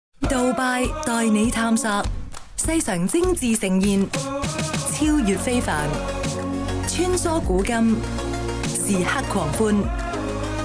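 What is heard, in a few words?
woman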